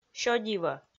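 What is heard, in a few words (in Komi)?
"сё дивӧ", Komi, female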